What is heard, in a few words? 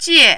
"jie4", mandarin